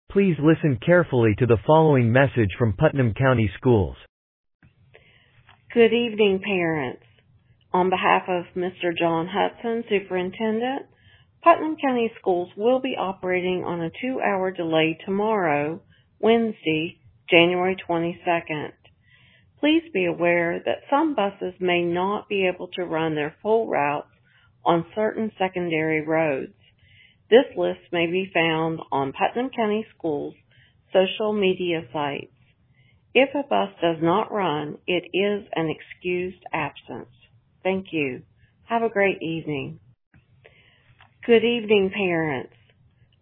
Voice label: Voicemail